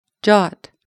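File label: "jot", English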